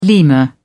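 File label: "lemur", British English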